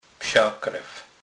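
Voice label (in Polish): "psakrew", Kurpie dialect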